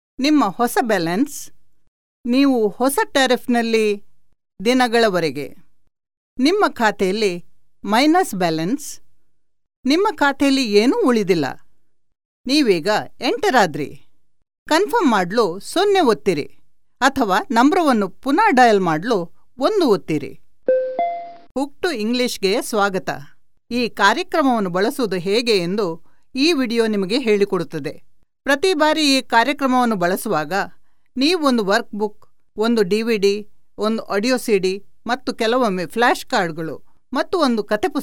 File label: Kannada Female